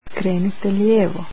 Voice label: Turn left, croatian

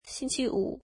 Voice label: "Friday", mandarin

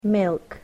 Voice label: "milk", English

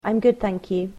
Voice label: "Im good thank you", English

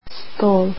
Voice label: table, croatian

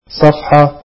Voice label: page, arabic

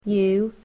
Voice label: "you", English

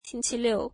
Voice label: Saturday, mandarin

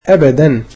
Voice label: "never", egyptian

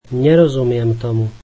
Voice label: I dont understand, slovak

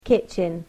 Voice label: "kitchen", English

